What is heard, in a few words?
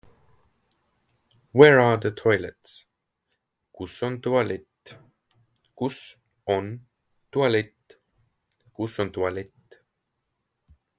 Where are the toilets, Estonian; the talking estonian phrasebook